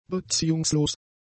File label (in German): "beziehungslos", German; adjectives